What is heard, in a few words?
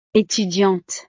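"etudiante", French; profesiones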